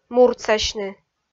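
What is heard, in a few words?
"муртсасьны", Komi, female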